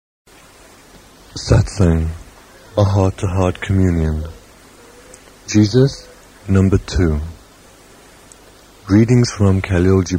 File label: Announcement